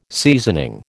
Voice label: "seasoning", American